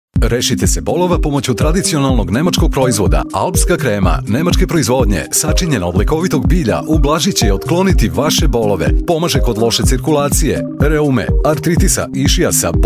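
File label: Serbian, male, commercial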